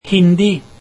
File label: Hindi, norwegian